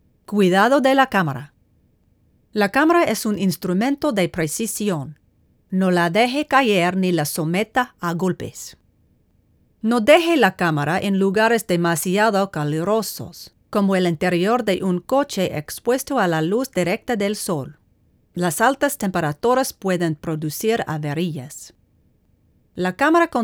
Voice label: Instructional Audio Spanish